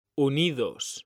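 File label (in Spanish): "unidos", Spanish, male; mekanta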